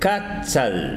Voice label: "hacha", Tsesungun

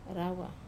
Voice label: "rawa", skin, Wamesa

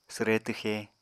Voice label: "swre twxe", Apatani

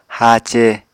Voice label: "haace", Apatani

